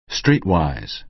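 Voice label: "streetwise", American English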